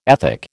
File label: "ethic", American